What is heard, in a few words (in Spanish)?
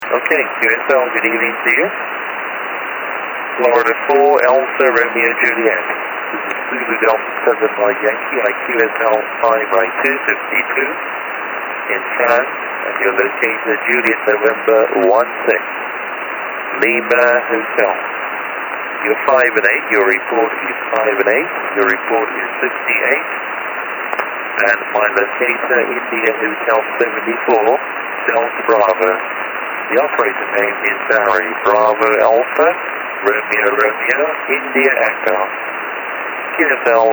en QSO